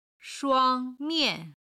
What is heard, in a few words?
"shuang1 mian4", chinese